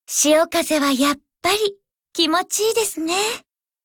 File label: warcry